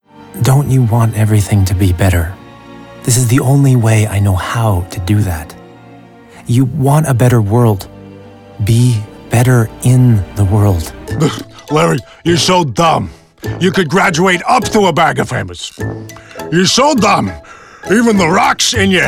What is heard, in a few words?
vo character